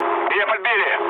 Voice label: aircraft damaged, mood low, speech ru; War Thunder

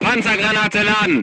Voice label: voice message load AP, crew tanks german

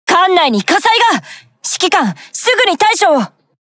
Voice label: Speech Alarms Fire Alarm; Baltimore; World Of Warships; Azur Lane

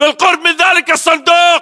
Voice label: landmark near crater, battle chatter, english

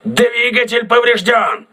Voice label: engine damaged, crew ships russian

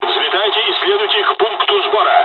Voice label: takeoff, dialogs russian; War Thunder

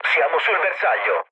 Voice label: near waypoint mood low, speech it; War Thunder

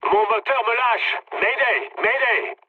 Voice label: damaged engine mood low, speech fr; War Thunder

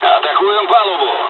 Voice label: dialogs russian; War Thunder

